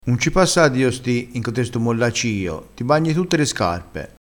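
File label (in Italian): "mollacciio", dialettale crespinese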